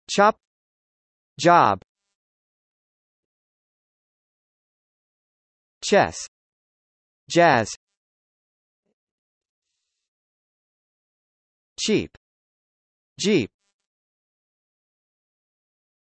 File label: ch vs j, US; die buchstaben ch und j